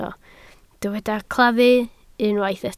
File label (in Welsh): "claddu" Welsh